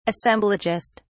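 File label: "assemblagist", British English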